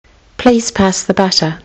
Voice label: please pass the butter, kiwi english